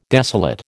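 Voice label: "desolate", American English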